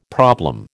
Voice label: "problem", American